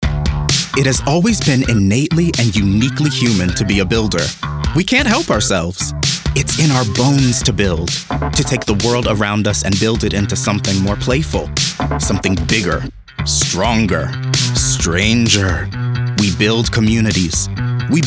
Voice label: COMMERCIAL